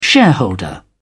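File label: "shareholder", British English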